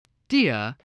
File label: "deer", English